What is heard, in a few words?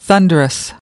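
"thunderous", English word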